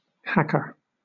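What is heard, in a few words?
"hacker" British English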